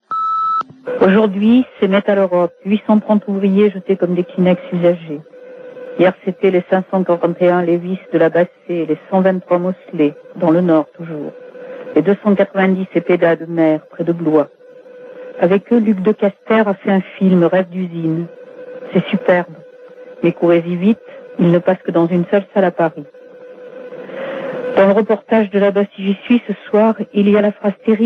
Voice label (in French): répondeur; Metaleurop